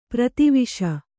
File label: "ಪ್ರತಿವಿಷ", Kannada